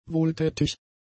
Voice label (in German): "wohltätig", German; adjectives